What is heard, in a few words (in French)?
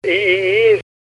Coq - Arabe